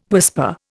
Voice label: "whisper", Indian